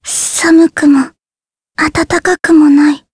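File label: vox Japanese; Jane; kingsraid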